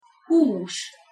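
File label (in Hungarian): "hus" Hungarian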